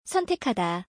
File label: "seontaekhada", Korean